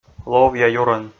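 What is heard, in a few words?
"ловъя юрӧн", Komi, male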